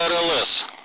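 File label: "radar", Russian; Allied Flight; DCS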